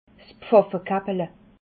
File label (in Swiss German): "pfaffekappele", alsace